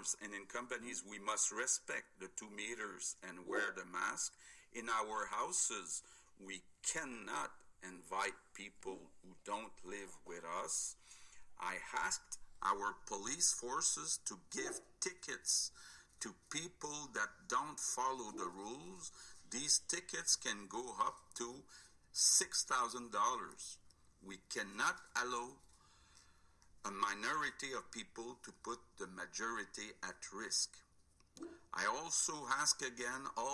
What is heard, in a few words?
Emergency COVID Alert